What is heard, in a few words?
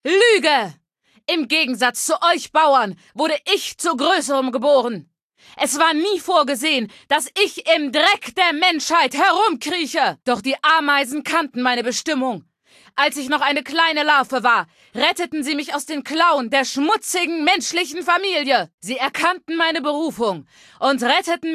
Female adult default